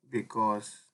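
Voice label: "because", Nigerian Pidgin, male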